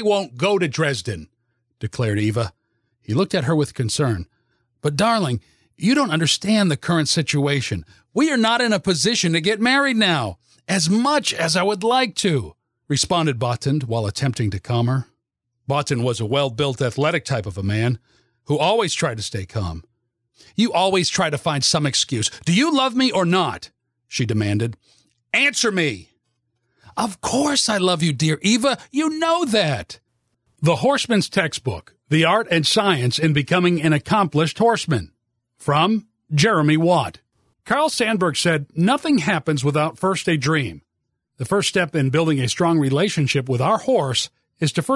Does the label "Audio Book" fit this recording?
Yes